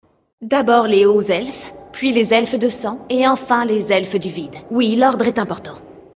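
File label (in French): Female Blague, voix françaises; Elfe du Vide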